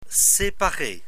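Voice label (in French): "separer", French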